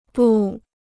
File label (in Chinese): "不", Chinese; bù; no; not so; (bound form) not